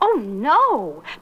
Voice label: "oh no", female; ooh ahh

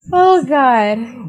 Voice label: "oh god", drag; Voice

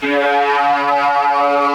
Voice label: "yeah" robot, voice

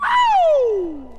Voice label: "ow" fake

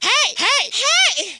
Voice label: "hey hey hey", voice; ooh ahh

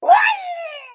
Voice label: "one" cartoon; numbers and letters

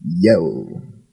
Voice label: "yo", voice

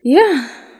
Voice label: "yeah" drag, voice

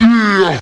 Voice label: "yeah" monster; ooh ahh

